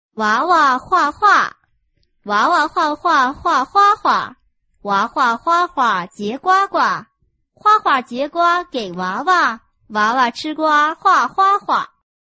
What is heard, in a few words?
chinese tongue twister